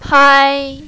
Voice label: "pai1", mandarin, female